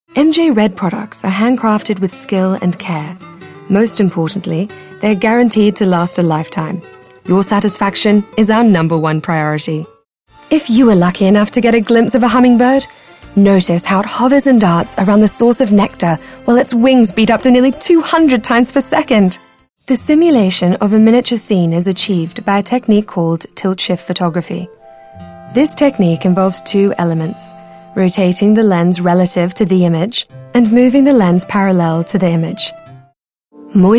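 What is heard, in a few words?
British, narration; UK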